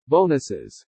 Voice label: "bonuses", english